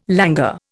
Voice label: "languor", Indian

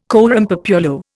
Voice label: "coram populo", Indian

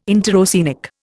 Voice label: "interoceanic", Indian

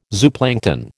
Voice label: "zooplankton", American

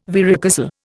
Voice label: "varicocele", Indian

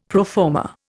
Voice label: "pro forma", Indian; Dictionary

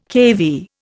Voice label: "keV", Indian

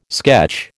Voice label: "sketch", American

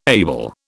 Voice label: "able", British

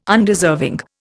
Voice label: "Undeserving", Indian

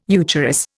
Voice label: "eucharis", Indian

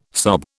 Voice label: "sob", British

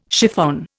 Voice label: "chiffon", Indian

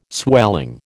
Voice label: "swelling", American

